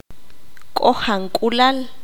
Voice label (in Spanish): "poco a poco", qanjobal